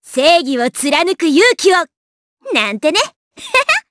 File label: Vox Victory jp; Cecilia; kingsraid